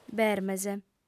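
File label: "bermes", female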